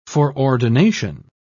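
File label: "foreordination", British English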